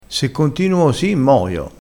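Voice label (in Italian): "moio", dialettale crespinese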